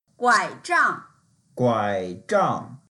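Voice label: "guai3zhang4", Mandarin Chinese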